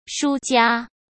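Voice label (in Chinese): "输家", Mandarin Chinese; chinese flashcards; hsk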